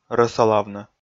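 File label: "рӧсолавны", Komi, male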